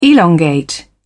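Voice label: "elongate", British English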